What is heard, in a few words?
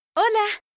bonjour, voix